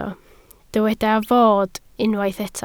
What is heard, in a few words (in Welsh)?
"fod", Welsh